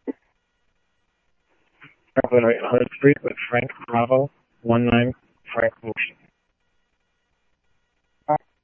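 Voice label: Scottsdale Police Department PD2 Scottsdale Police, scanner audio; H-Scottsdale; SCTSDLE PD - PD2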